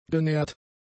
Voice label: "genährt", German; adjectives